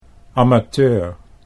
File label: "amateur", Dutch